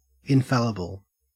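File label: "infallible", Australian English; pronunciations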